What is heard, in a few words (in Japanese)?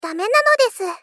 Moe Refuse Dame nanodesu